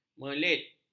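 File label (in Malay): "melit", Malay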